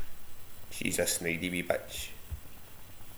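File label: "snidey", slang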